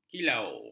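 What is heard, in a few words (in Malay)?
"kilau", Malay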